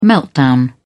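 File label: "meltdown", British English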